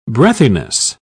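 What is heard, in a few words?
"breathiness", British English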